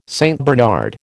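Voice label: "St Bernard", American English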